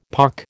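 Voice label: "pock", American English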